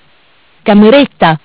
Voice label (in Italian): "Cameretta", MESSAGGI VOCALI; Bentel; BLE320; Audio BGS220